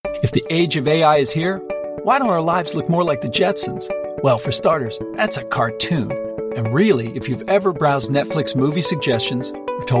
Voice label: Narration

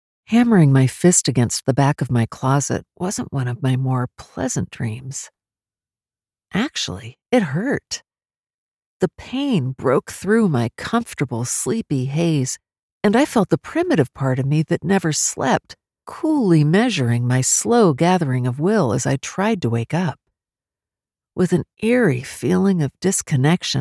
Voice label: SUPERNATURAL FICTION 1ST PERSON

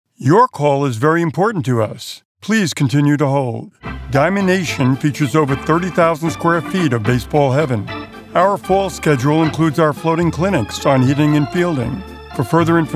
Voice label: Voiceover Telephony

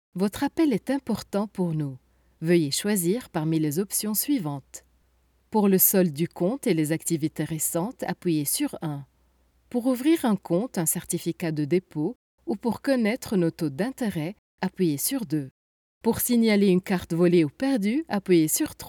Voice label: IVR

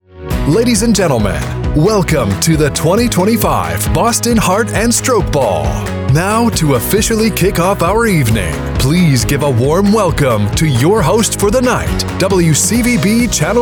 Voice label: VOG

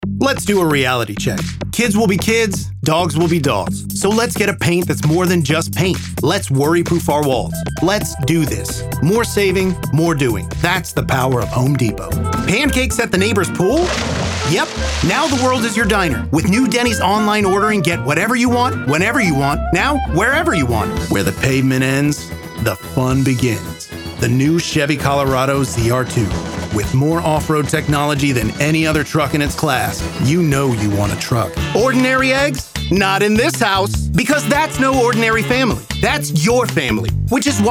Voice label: Commercial

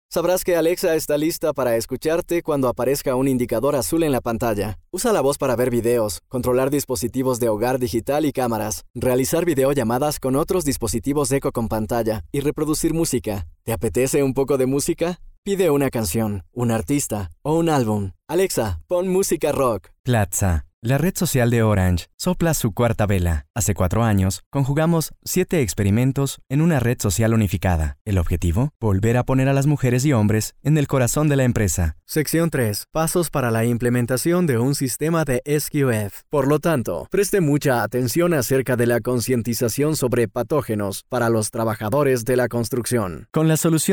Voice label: Spanish Narration

